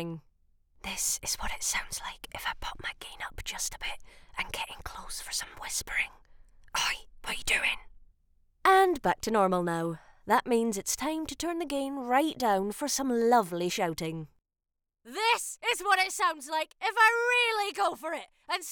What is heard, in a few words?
RAW Studio Sample